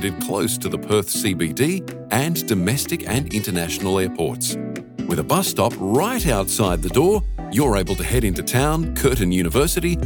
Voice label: Phone Motel Hold Messaging; Australian Adventure Pty Ltd; Bentley Motel